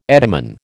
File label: "etymon", American